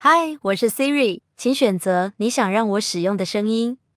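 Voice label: Taiwanese Chinese; zh-TW; iOS Sounds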